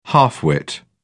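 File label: "half wit", British English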